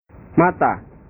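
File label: "mata", Indonesian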